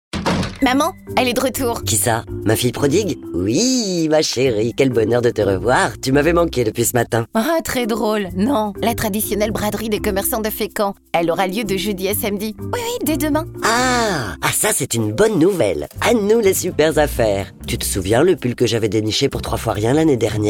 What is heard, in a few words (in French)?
Spot pub; Braderie de Fecamp